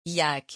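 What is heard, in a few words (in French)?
"yak", French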